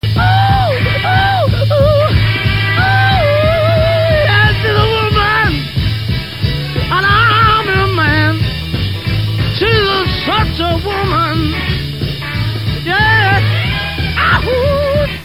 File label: woman